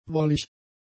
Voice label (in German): "wollig", German; adjectives